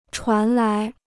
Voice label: "传来", Chinese